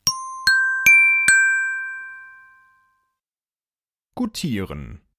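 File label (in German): "goutieren", German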